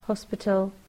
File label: "hospital", English